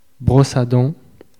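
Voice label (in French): "brosse a dent" French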